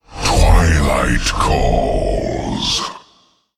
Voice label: Male Elemental Attack

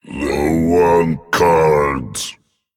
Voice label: Male Dragon Evil Low Cards

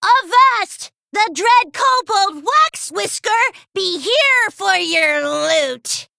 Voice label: Female Kobold Emote

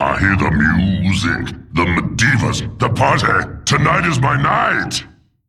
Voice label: Male Demon; Malchezaar; Final Malchezaar Turn 7

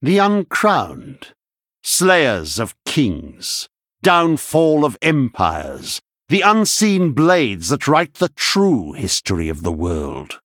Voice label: Male Human Story Victory

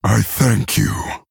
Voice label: Male Orc Thanks; Thrall

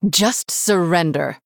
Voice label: Female Human Attack

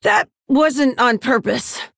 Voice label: Female Orc Oops

